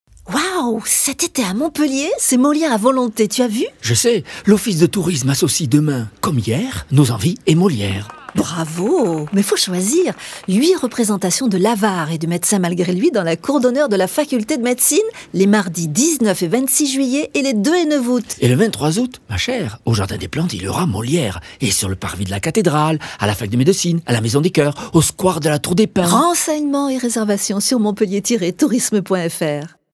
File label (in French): spot; montpellier; fb herault